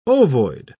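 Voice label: "ovoid", English, British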